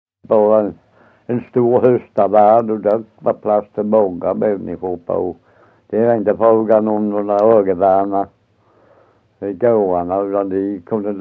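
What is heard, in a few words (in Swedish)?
Össjö Skåne, Svenska sagor dialekter; Folkminnen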